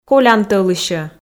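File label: "колян тӧлысьӧ", Komi, female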